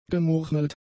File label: "gemurmelt", German; adjectives